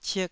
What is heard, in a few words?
"cik2", taiwanese